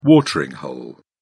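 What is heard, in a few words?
"watering hole", British English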